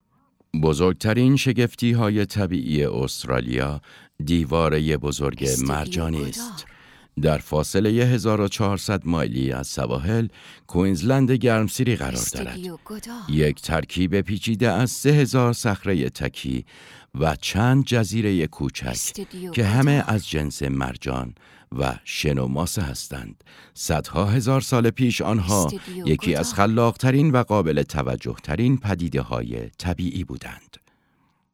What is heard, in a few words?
documentary